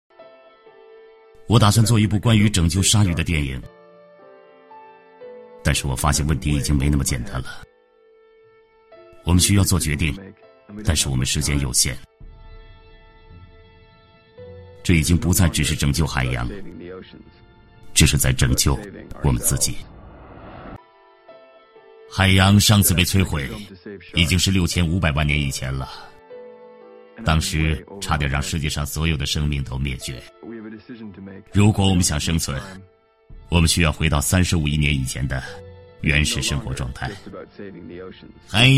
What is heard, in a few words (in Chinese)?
男 纪录片 译制片风格, 浑厚磁性, 国语男声配音; 海洋